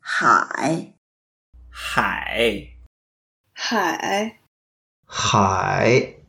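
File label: "hai3", Mandarin Chinese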